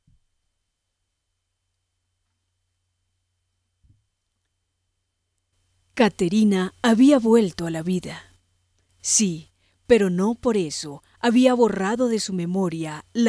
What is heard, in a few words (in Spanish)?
locución audiolibro; Bogotá, Colombia